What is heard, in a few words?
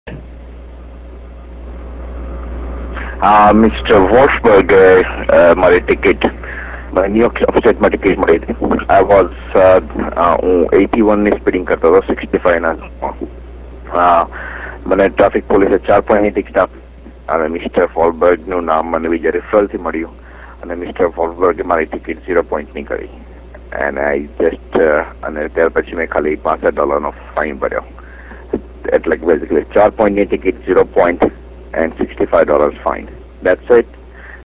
gujarati